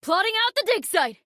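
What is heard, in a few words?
English; 探奇（守望先锋2）; 终极技能; 友方释放语音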